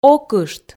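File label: "окышт", Komi, female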